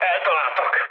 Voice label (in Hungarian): aircraft damaged mood med, hun; War Thunder